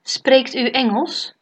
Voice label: do you speak english, dutch

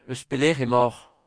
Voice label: where from, Breton; Eus pelec’h emaoc’h?

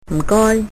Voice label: excuse me, cantonese

